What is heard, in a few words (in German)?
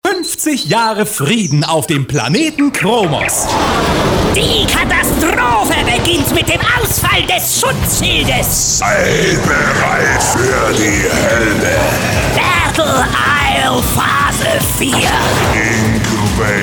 Funkspot; Wagler Marketing; Zur48; Battle Isle 4